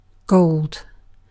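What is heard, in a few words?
"gold", British English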